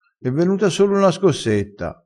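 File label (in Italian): "scossetta", dialettale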